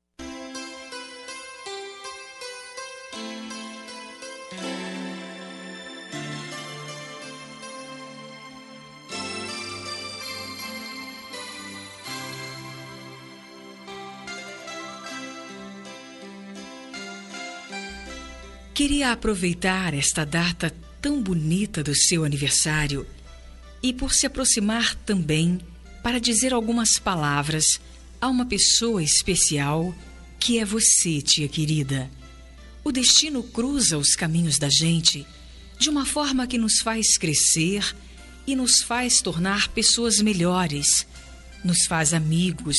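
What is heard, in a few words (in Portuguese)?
tia feminina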